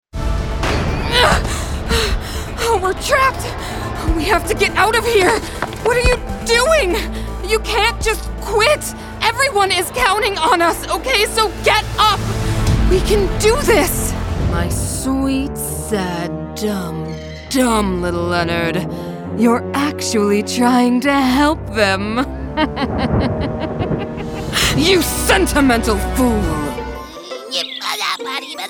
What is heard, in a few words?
animation